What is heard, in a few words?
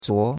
"zuo2", chinois; Cours-de-Chinois